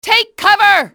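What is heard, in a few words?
"take cover", english, female; XBOX; enemy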